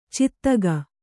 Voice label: "ಚಾತಕಿ", Kannada